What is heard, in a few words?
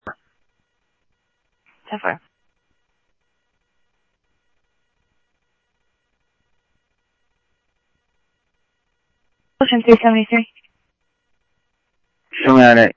Police, scanner audio